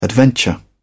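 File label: "adventure", British English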